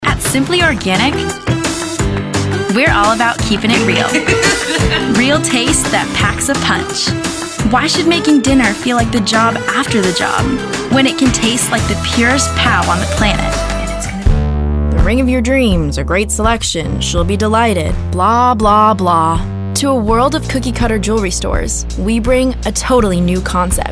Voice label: women commercial